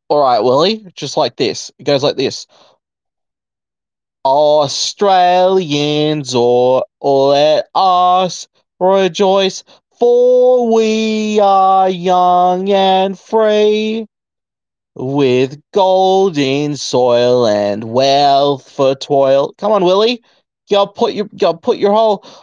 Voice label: voice message